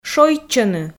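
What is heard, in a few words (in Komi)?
"шойччӧны", Komi, female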